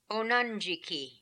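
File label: "Onandjiki", pronunciations; Fourth Way; Beelzebub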